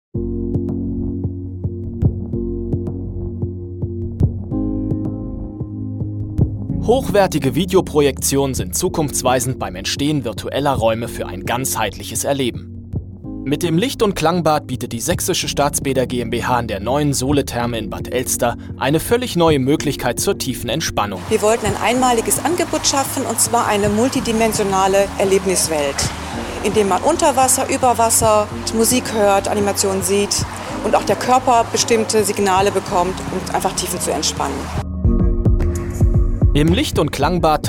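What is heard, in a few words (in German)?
Werbung, Neutral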